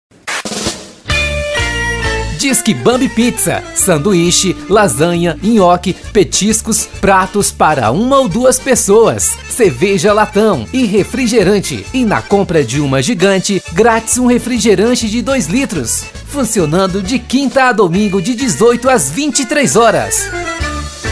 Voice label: voz locutor pizza; bamby pizza

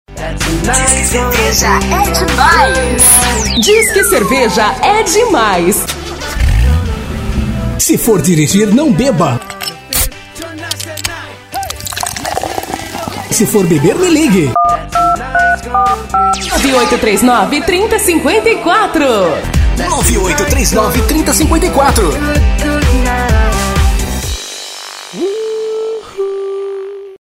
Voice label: voz com efeitos criativos; STUDIO L PRODUÇÕES

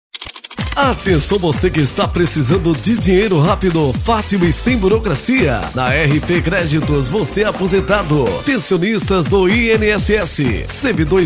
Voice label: comercial; RP CREDITOS

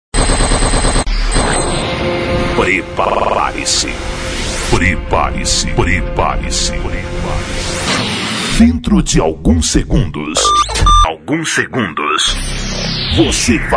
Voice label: voz impacto padrao e coloquial; Studio Bruno Monteito Vinhetas Pra Djs; Studio Bruno Stronda

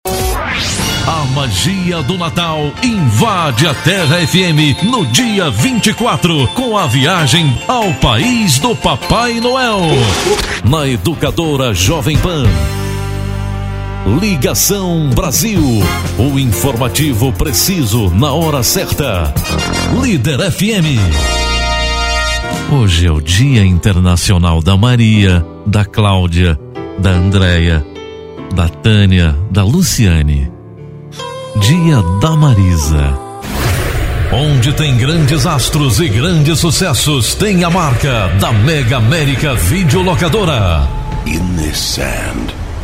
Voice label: locutor

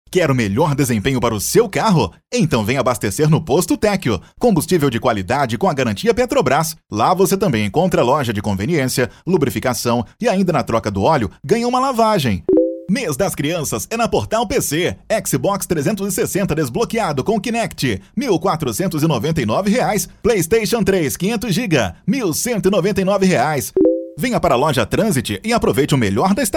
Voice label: locutor

